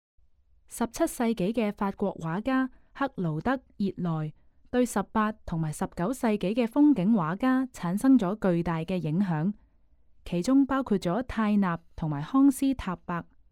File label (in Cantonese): Canto; audio guide